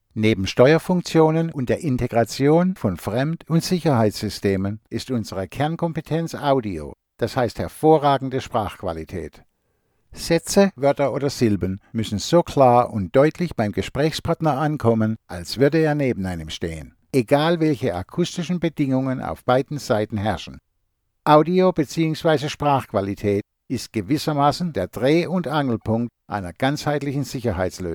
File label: GERMAN Business Presentation